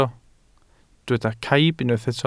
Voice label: "caib", Welsh